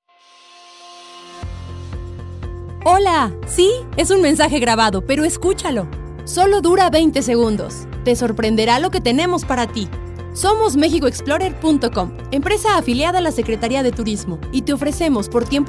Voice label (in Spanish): locutora mexicana; mexico